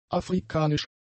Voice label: "afrikanisch", german; adjectives